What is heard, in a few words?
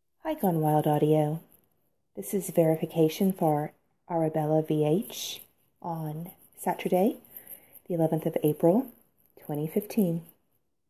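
Female verification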